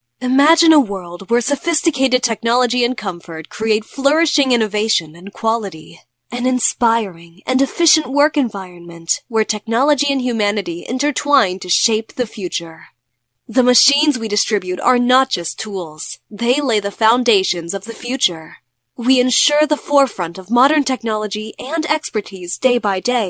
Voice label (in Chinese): 美式女声